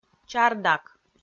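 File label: "чардак", Komi, female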